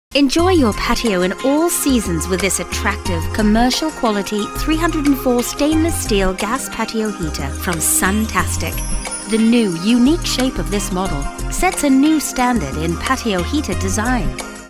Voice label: British Product explainer, british accent